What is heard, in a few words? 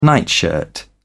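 "nightshirt", English, British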